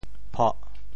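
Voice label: "phoh4", Teochew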